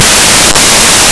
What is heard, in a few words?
selkath, voices male; Селкат